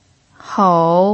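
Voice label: "hou" third tone, pinyin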